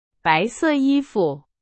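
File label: Chinese, example sentence; hsk2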